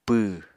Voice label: "pww", Apatani

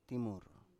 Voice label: "timur", Biak

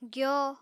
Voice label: "gyo", Apatani

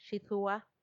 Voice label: "xidhua", grandchild, macuiltianguis; nieto

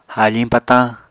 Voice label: "haafiñ patañ", Apatani

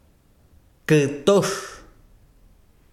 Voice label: besiro polvo, chiquitano

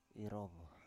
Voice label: "irov", Biak

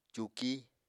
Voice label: "cuuki", Apatani

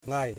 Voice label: "Mother", Yimas

